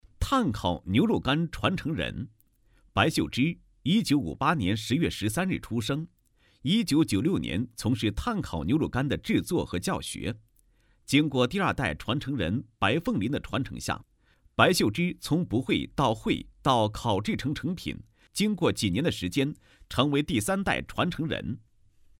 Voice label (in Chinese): 男, 人物介绍; 神牛肉干传承人